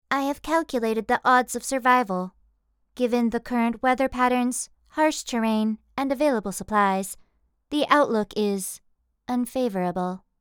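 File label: Character Robotic Cute Young, Female; American English voice-over artist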